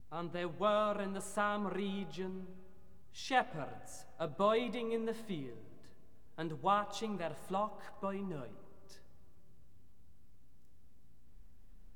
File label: reading; vi. the shepherds at the cradle; luke ii, 20-2; Boston Camerata